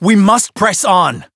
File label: variable chant; shion